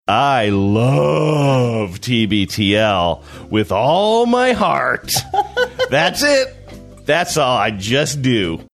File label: saying i love tbtl with all my heart exaggerated sarcastic manner